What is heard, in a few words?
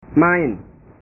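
"main", Indonesian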